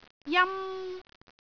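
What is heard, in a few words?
"jam1", Cantonese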